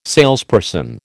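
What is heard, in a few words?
"salesperson", American English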